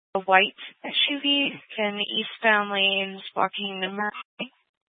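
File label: Incident Tactical, scanner audio recording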